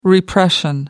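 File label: "repression", English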